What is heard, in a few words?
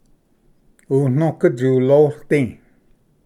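"unoga tsuloisdi", Cherokee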